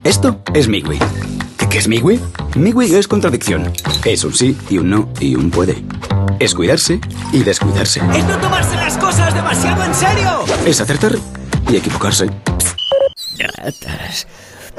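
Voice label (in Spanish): generico España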